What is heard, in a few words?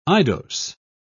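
"eidos", British English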